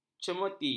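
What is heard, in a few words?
"cemeti", Malay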